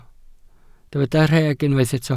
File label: "rheg", Welsh